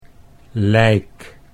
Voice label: "lijk", Dutch

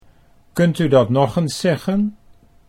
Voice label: kunt U dat nog eens, Dutch

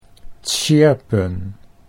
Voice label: "tsjirpen", Dutch

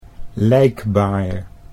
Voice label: "lijkbaar", Dutch

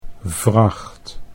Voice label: "vracht", Dutch

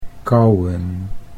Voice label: "kauwen", Dutch

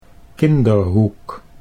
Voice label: "Kinderhoek", Dutch

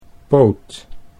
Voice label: "poot", Dutch

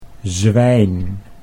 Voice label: "zwijn", Dutch

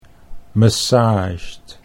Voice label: "massaged", Dutch English

